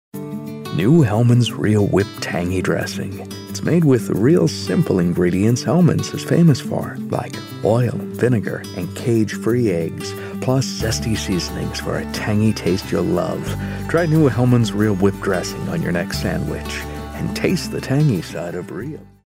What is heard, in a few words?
folksy homespun